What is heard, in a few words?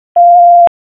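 Raleigh Police Northeast District Dispatch, p25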